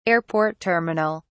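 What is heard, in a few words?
"airport terminal", British English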